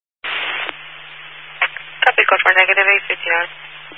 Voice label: Highway Patrol, Phoenix Metro West Area, scanner